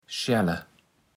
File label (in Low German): "schälle", Platt